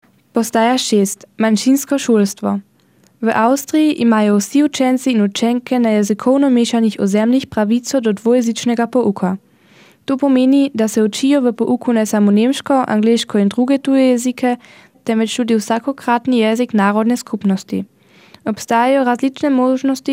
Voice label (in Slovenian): slovenski